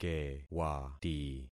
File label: "kewati", concat syllables; Affixing experiment